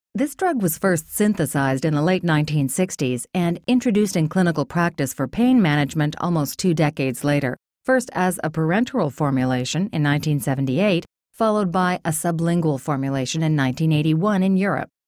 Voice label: Articulate Believable

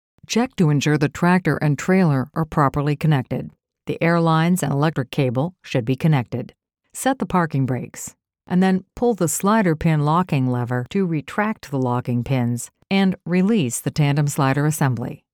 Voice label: Procedures Deep Authoritative

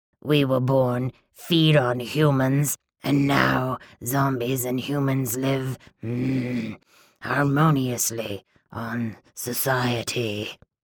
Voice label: Zombie Brooding Creepy

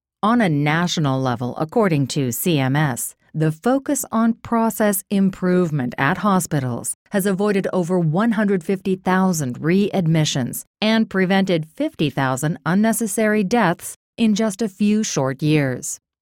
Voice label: Narrator Teacher

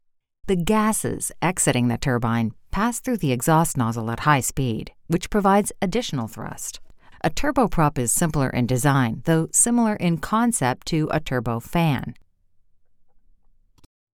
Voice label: Aircraft Professional Approachable

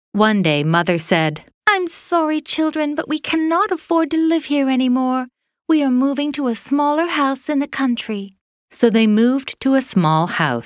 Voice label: Childrens Traditional

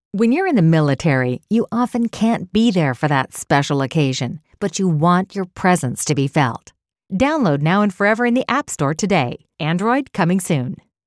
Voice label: Military Sincere Optimistic

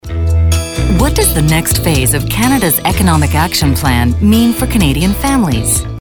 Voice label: authoritative natural

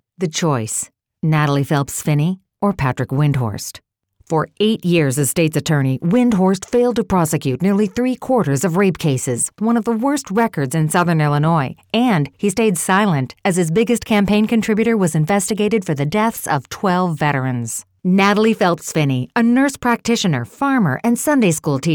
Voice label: Political ad Comparison

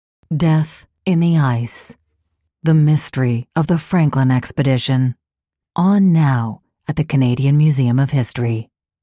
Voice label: Exhibit Promo

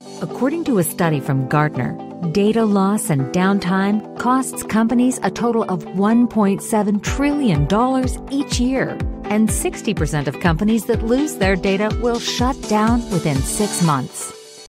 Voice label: Voice Over Artist Corporate

